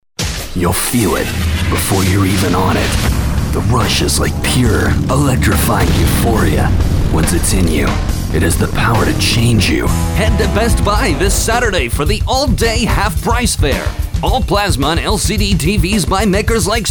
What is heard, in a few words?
hardsell